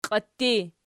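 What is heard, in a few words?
"qiitti" nominative, Archi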